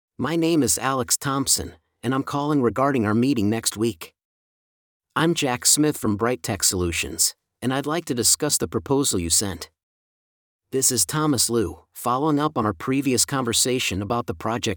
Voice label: Telephone talk